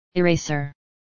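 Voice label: "Eraser", English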